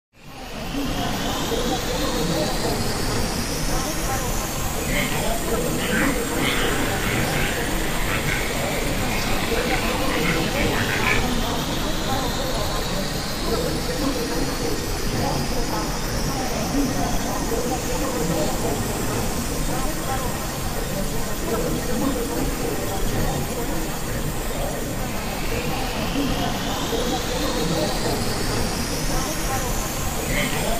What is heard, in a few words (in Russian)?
razgovori nebolshoj tolpi inoplanetyan na kosmodrome